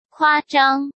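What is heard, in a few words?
"夸张", Mandarin Chinese; hsk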